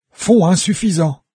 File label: low gold, fr, NARRATOR; Fate Undiscovered Realms